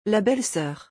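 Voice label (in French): "La belle soeur", French; vocabulaire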